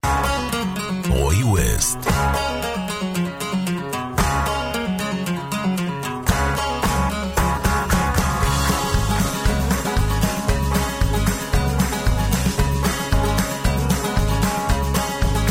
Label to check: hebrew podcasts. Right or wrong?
Right